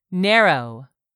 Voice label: "narrow", English; 3000 words